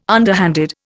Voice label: "underhanded", Indian

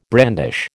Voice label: "brandish", American

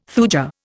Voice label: "thuja", Indian

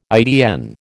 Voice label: "ITN", American

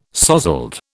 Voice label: "sozzled", British

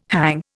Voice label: "hang", Indian

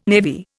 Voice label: "navy", Indian

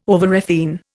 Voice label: "overrefine", Indian

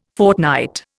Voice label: "fortnight", Indian

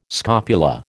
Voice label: "scopula", American

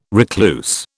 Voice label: "recluse", British

